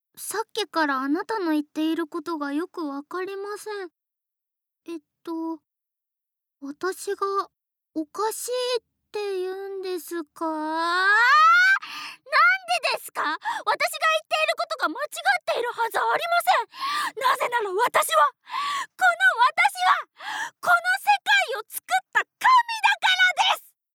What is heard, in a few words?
Young girl